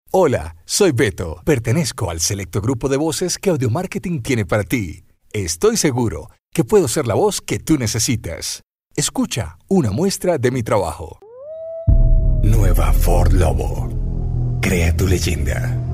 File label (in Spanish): Locutor colombiano